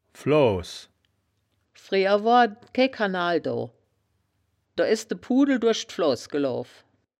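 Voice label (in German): "floahs", wittlicher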